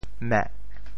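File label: "meh4", Teochew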